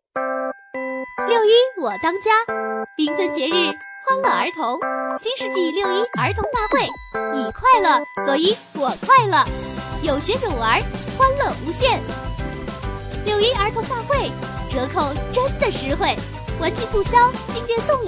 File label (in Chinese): 女 促销 节日促销